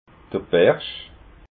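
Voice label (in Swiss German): "bersch", Alsatian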